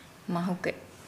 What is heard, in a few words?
"open", Nukuoro